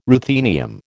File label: "ruthenium", American